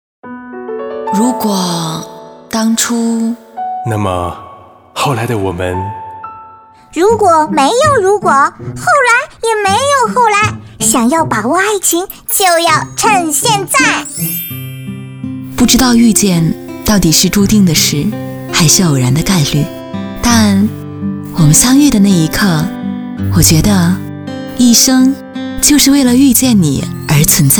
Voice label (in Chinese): 女, 电台片花, 相亲圈